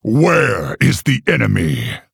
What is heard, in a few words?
Male Orc Picked